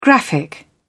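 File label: "graphic", British English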